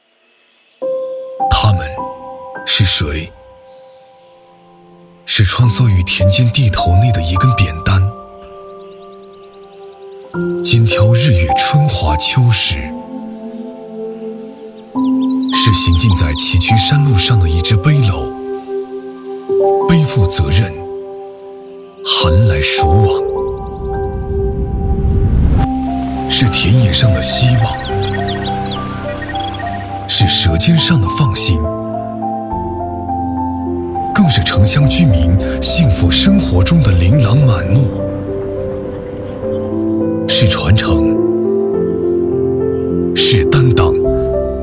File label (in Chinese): 男 专题; 中国供销总社